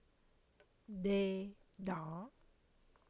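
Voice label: "Đo" Vietnamese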